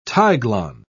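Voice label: "tiglon", British English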